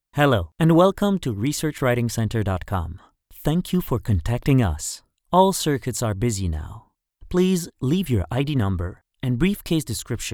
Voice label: Phone System